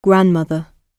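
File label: "grandmother" spoken, British English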